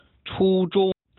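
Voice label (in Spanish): "初中", chino mandarin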